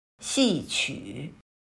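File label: "戏曲", Chinese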